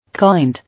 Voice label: "Kind", English